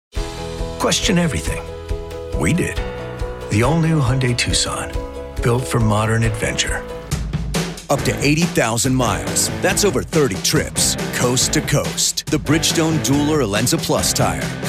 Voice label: commercial